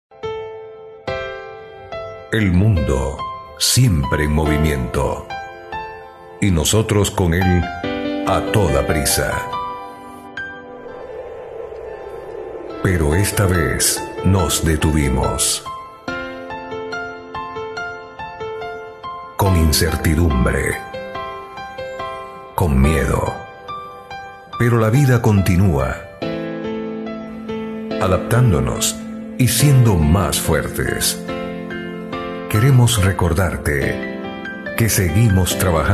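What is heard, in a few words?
comercial, Venezuelan Spanish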